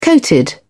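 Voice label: "coated", British English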